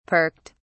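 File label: "perked", British English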